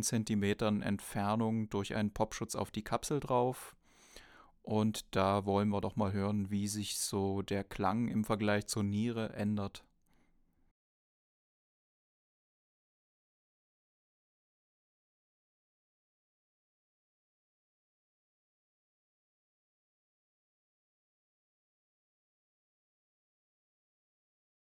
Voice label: shure ksm44a kugel

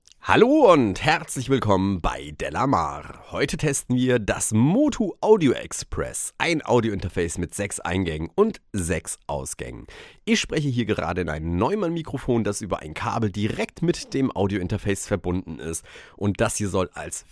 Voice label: motu audio express stimme

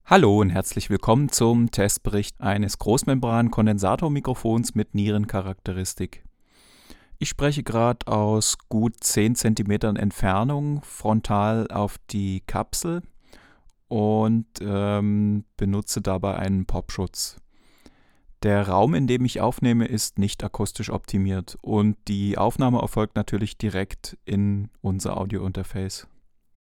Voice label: img stageline ecms-90 sprache; img stageline ecms-90 test

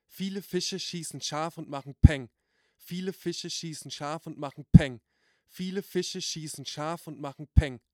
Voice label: akg d7 sibilanten und pop-laute

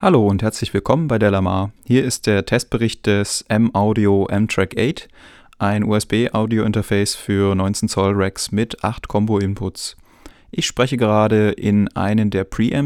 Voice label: m-audio m-track eight stimme

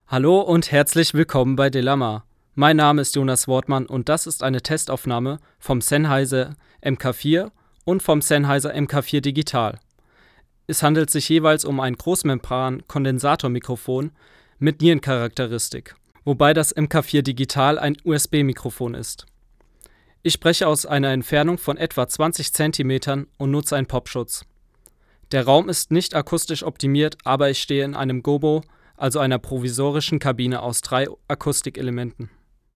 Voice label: sprache m; sennheiser mk 4 digital

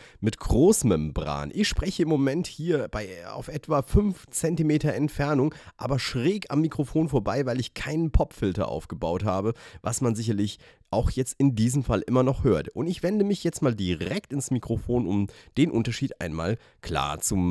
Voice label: Sennheiser MD421-II Sprache

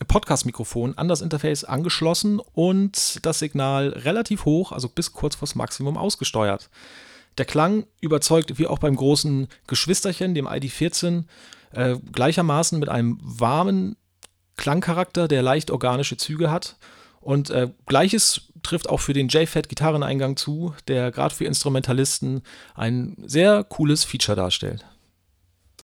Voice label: audient id4 mkll sprech

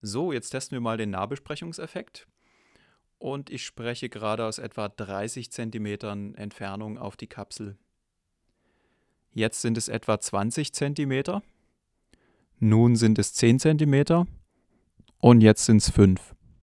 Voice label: shure ksm8 nahbesprechung

